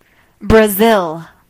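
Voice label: brazil, Spanish; gcompris-data; geography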